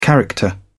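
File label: "character", British English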